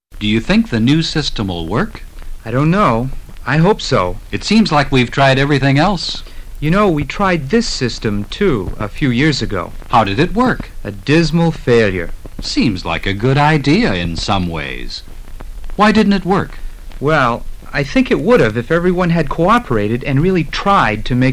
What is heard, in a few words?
conversation